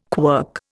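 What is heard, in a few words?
"quirk", Indian English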